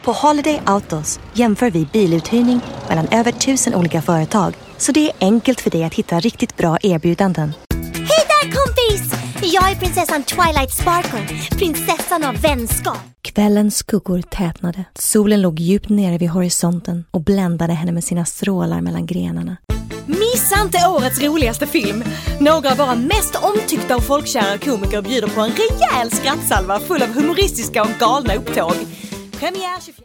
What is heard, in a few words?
Swedish